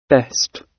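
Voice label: "best", British English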